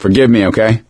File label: sorry, survivor voice; source engine; left 4 dead 2; gambler